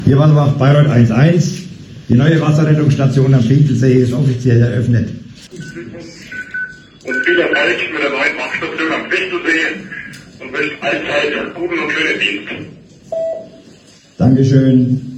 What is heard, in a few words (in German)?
funkspruch